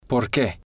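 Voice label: "por que", Spanish, male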